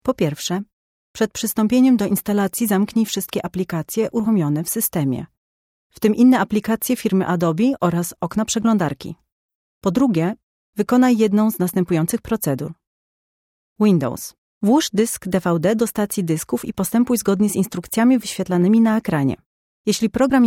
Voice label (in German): pl anleitung, polnisch; sprecher